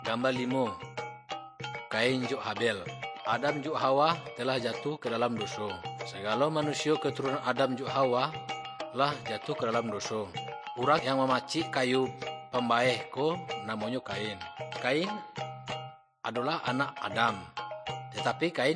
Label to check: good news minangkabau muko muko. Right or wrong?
Right